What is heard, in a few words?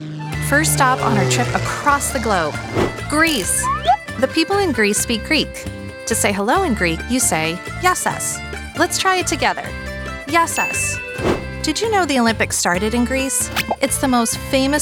Narration